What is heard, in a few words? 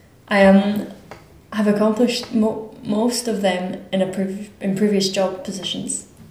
female filler; interviewee